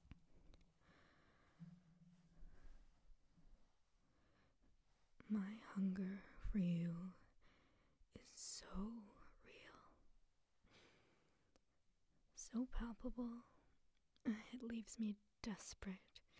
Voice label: Erotic poetry, Subtly Dominant tone, Desire, Sensual; F4F; I hunger for you